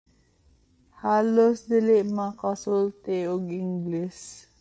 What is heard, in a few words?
Cebuano